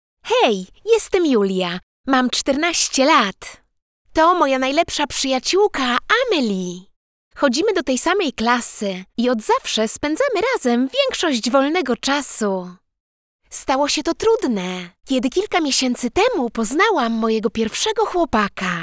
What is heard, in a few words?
female polish voice